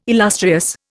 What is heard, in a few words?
"illustrious", Indian